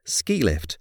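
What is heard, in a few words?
"ski lift", English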